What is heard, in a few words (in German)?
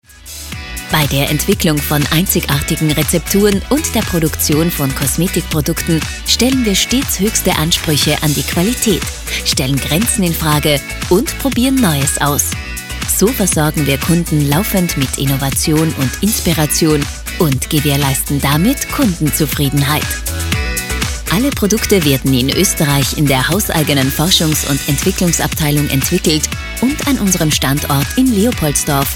Sprecherdemo Erklaervideo; GW Cosmetics